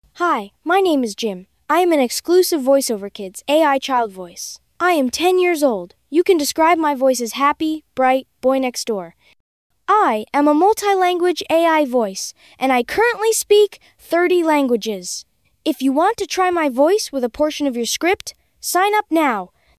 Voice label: boy10; voiceoverkids

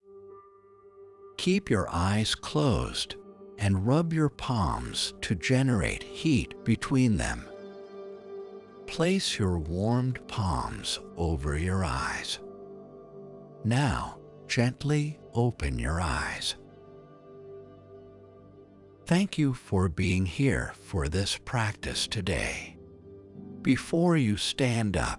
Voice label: Calm

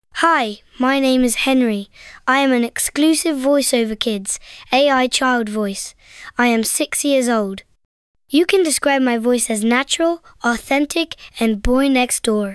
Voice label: boy6

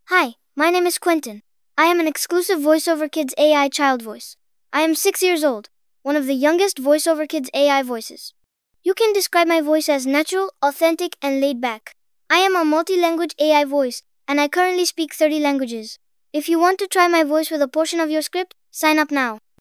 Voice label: boy6; voiceoverkids

